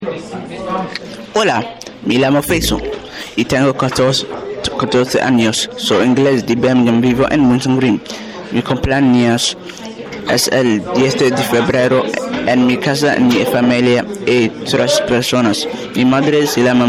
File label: talking spanish